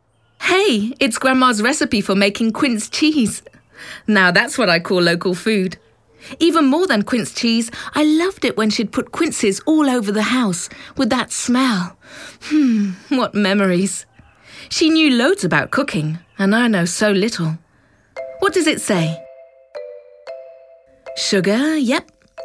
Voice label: audioguia english; vrural